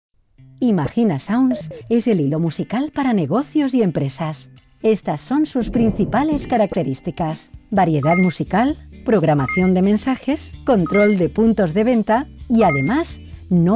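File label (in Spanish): e-learning, Spain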